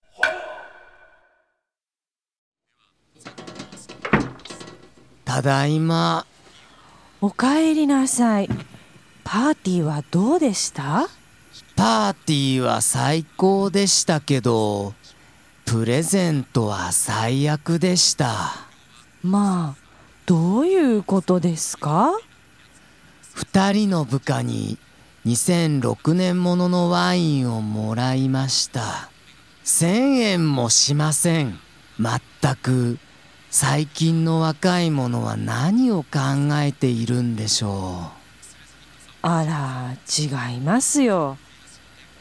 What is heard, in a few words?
dialog; JapanesePod101; Beginner Season 1